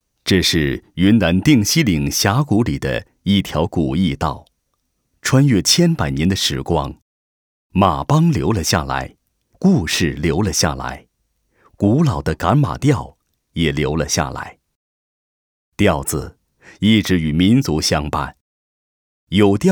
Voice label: 男-纪录; 民歌盛典

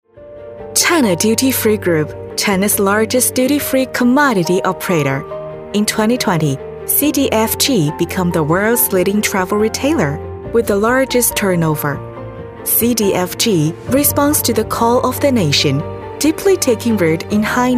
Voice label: 女 英文样音; 海口国际免税城

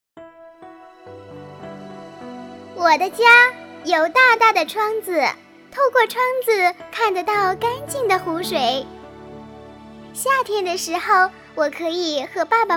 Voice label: 房产旁白, 女30童声

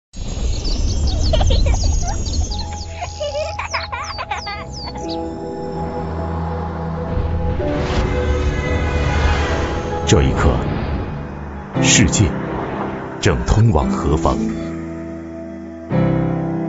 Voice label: 男 广告 招商

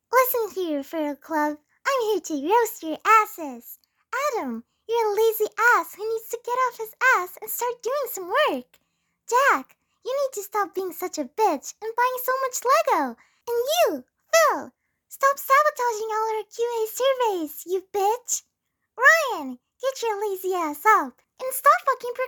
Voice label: 外籍女声,英式童声 生气

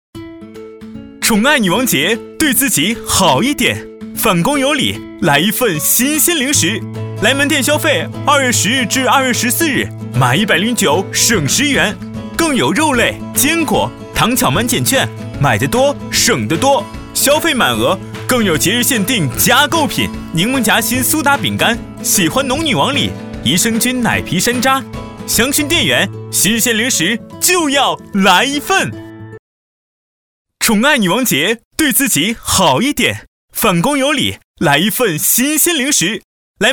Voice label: 男 广告 促销

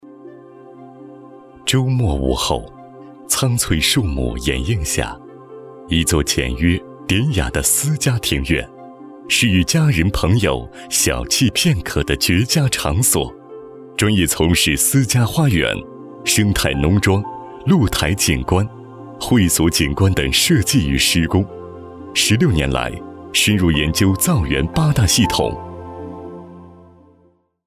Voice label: 男 广播

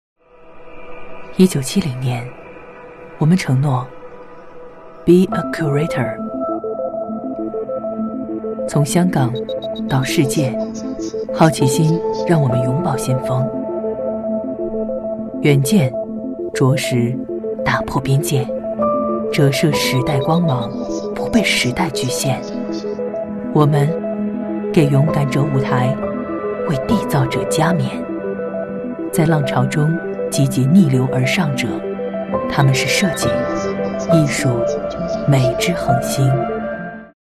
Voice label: 女 宣传片; joyce beauty

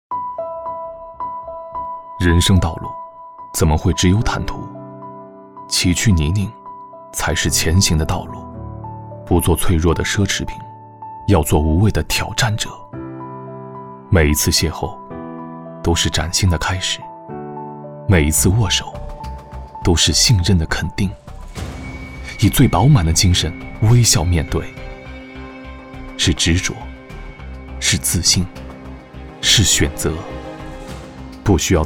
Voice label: 男 解说 人文旁白; 中国电信人文旁白说

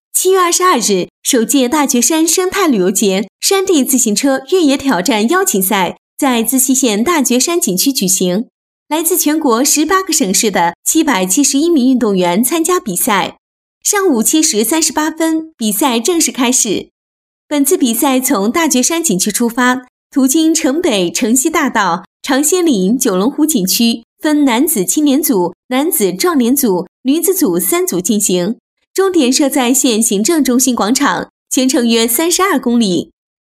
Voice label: 女 自行车比赛 新闻语气

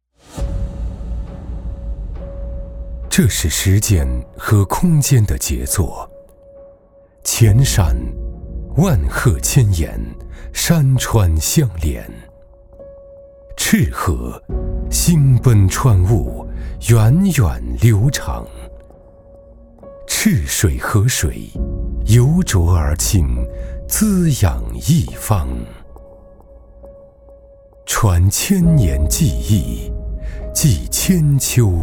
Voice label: 男 高端 质感; 黔山赤水

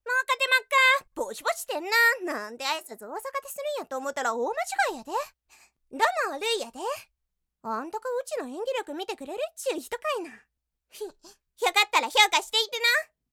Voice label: 外籍日语女 角色 关西腔元气

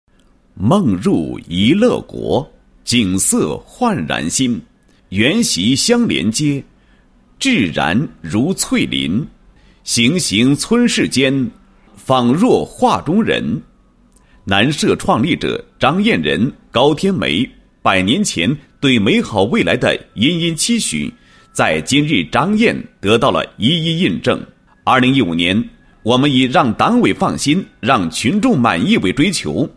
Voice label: 男 工作总结; 某镇综治平安建设掠影

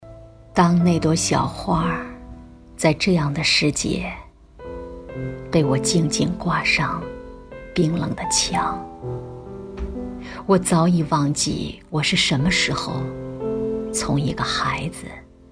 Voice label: 朗诵; 姥姥我想你了

